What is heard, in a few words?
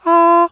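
falsetto, human voice; EGG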